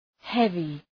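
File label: "heavy", English; {‘hevı}; Επίθετο; βαρύς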